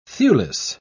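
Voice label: "thewless", British English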